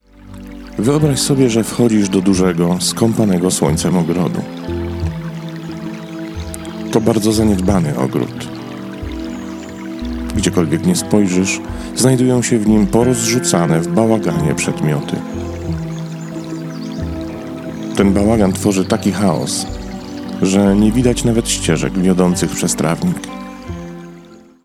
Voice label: meditation narration 432; empty garden